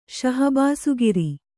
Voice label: "ಶಹಬಾಸುಗಿರಿ", Kannada

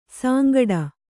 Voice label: "ಸಾಂಗಡ", kannada

